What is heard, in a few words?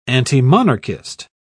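"antimonarchist", British English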